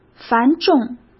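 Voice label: "繁重", Mandarin Chinese; chinese flashcards; hsk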